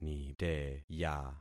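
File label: "niteya", concatenated syllables; Affixing experiment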